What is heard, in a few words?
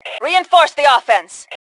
Attack Reinforce, Female; T2 Female 01